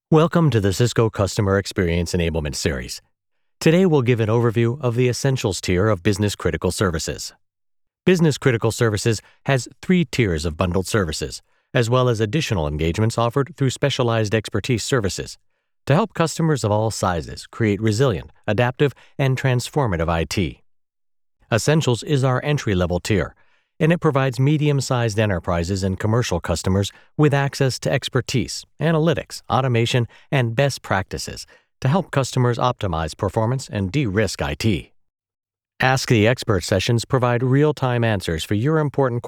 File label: eLearning; Cisco